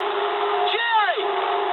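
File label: English enemy detected mood low; War Thunder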